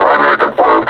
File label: announce attack, combine suppressor; alyx; Half Life Alyx